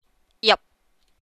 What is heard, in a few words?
"ieup", rhymes